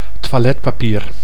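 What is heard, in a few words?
"toiletpapier", Dutch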